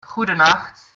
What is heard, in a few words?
good night, dutch